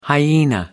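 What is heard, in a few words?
"hyena", English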